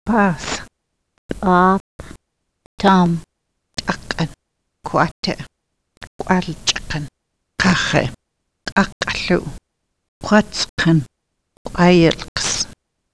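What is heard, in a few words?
Montana salish, ejektiver; [paʕas pʼaʕap tam tʼaqʼən kʷateʔ kʷʼalt͡ʃʼqən qaχeʔ qʼaqʼɬuʔ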